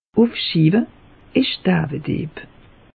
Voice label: "ufschieve", alsace; meteo

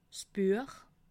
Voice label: "buech", alsace

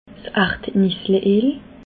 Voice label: "ardnisse eel", alsace

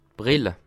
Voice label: "brille", Alsace

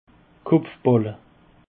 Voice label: "kopfballe", Alsace

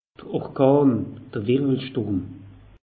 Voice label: "orkan", alsace; meteo

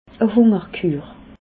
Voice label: "hungerkur", alsace

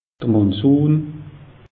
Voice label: "monsun", alsace; meteo

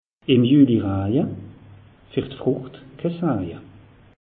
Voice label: "im juli", alsace; meteo

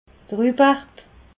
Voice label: "rubarb", alsace

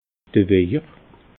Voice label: "wejer", alsace

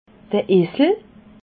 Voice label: "esel", alsace

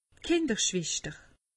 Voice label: "kinderschwester", alsace; medecine

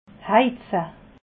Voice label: "heize", alsace

